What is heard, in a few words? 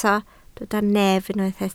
"nef", Welsh